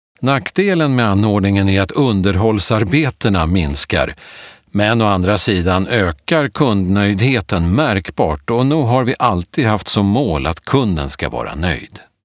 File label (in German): Neutral; Fremdtake